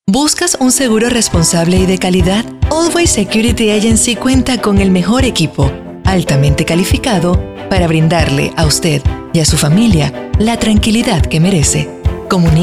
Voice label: commercial